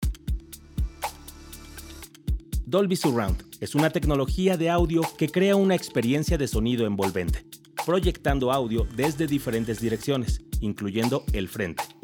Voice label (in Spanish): Corporate Manifiestos Capsulas Television Conduccion En vivo; voiceover demo